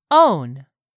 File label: "own", English; 3000 words